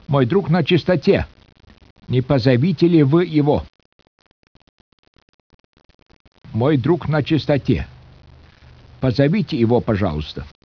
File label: speech russian